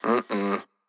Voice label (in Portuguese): Negaçao neutra